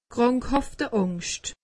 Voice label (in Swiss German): "krankhaft angst", alsace; medecine